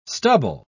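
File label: "stubble", English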